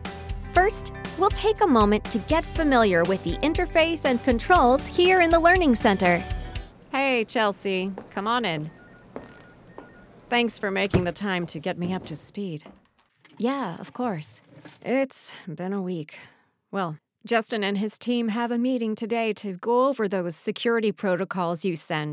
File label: eLearning Training